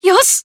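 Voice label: Voice Emo Glad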